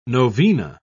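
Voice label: "novena", British English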